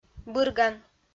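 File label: "бырган", Komi, female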